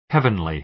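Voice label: "heavenly", American English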